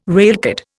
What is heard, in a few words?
"railcard", Indian